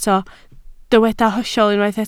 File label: "hollol", Welsh